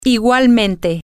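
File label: equally likewise, spanish, female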